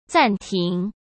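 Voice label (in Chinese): "暂停", Mandarin Chinese; chinese-flashcards; hsk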